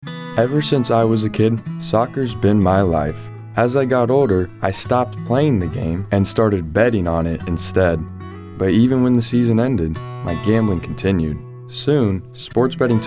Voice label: Male